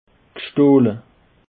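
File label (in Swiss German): "gstohle", Alsatian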